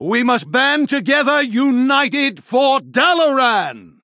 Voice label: Male Human Play